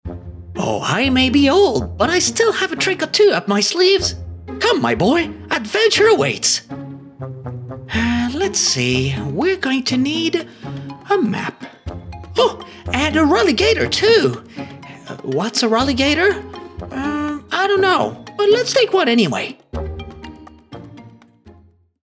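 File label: Character Cartoon, English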